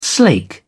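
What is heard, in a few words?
"slake", British English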